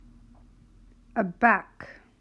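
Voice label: "A back", English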